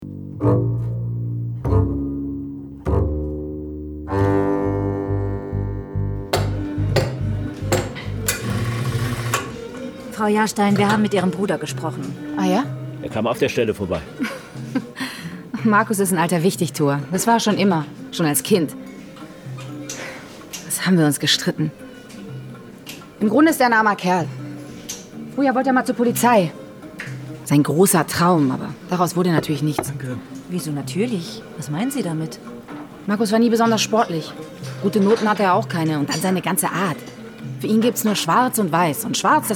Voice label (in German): Radio Tatort; Café Imam